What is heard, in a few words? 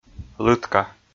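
"лытка", Komi, male; kpv audio dict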